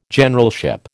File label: "Generalship", American